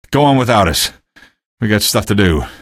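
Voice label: citizen evac no, male; vo; npc; source engine; episodic; episode 1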